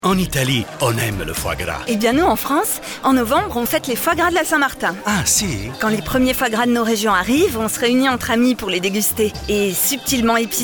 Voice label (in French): pub, fraiche enthousiaste; CIFOG